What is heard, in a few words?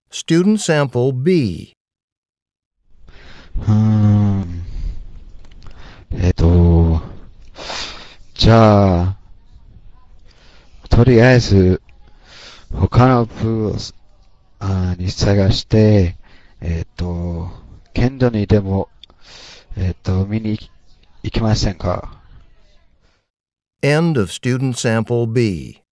japanese return telephone call